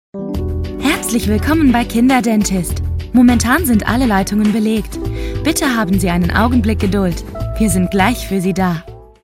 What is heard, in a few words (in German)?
deutsch